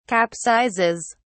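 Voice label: "capsizes", English, British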